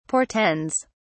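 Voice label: "portends", British English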